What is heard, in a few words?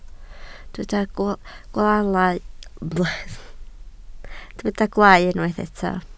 "gwaalau", Welsh